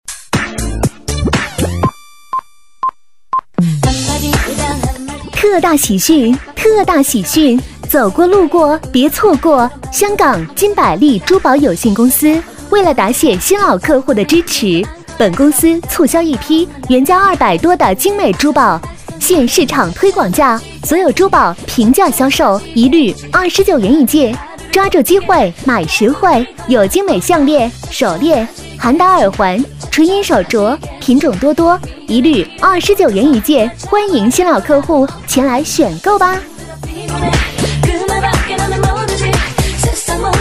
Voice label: 女 促销, 国语女声配音, 磁性; 香港金伯利珠宝有限公司

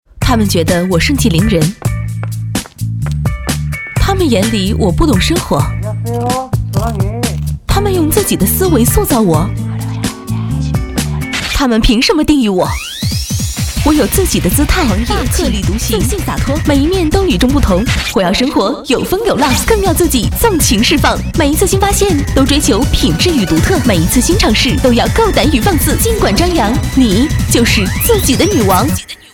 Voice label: 女 广告 节奏动感; 你就是自己的女王

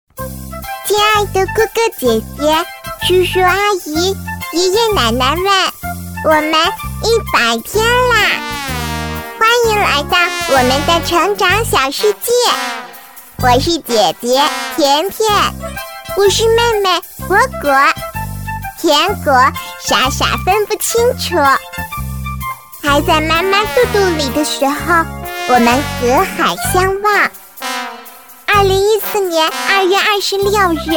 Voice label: 女19 童声女 百天 奶气 萌声 可爱; 百岁宝宝生日宴女童

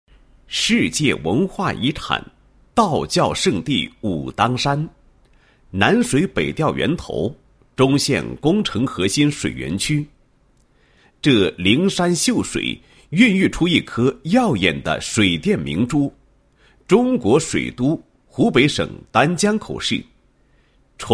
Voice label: 男 纪实 路政治超规范达标建设纪实

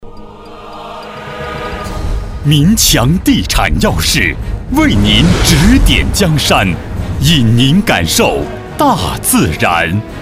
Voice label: 男 地产; 国语男声配音; 民强地产

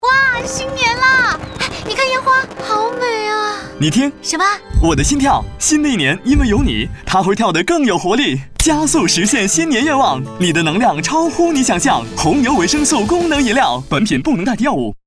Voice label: 男 广播 新年欢快; 红牛

